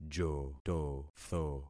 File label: "jototho", concat syllables; Affixing experiment